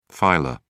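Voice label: "filer", English, British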